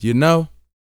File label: BOAST MF; Vocal Planet; R&B Dance